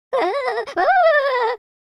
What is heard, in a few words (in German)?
scared, German